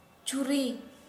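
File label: Santali female knife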